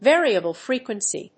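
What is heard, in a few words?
"VARIABLE FREQUENCY", English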